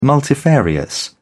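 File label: "multifarious", English, British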